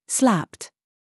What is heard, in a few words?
DRESS TRAP, minimal pairs